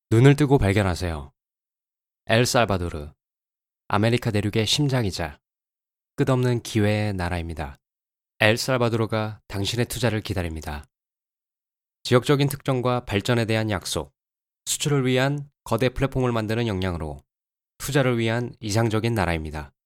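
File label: Korean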